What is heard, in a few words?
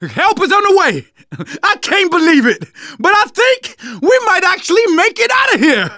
radio used generic; Left 4 Dead 2; left4dead2 dlc3